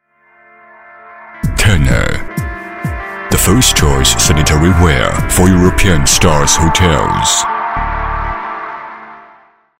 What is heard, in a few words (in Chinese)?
英男 广告落版的感觉 英文